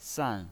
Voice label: "san4", pinyin